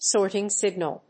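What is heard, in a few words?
"sorting signal", English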